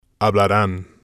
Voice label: "hablaran", spanish